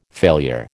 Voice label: "failure", American